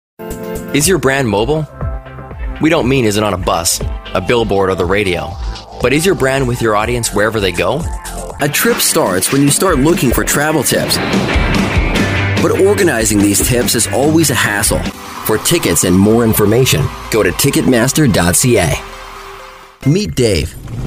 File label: Voice Talent Commercial; Commercial Demo